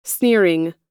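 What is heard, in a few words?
"sneering", American English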